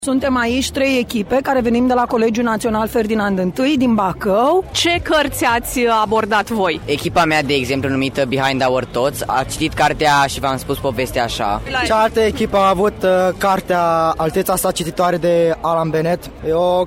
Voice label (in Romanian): vox pop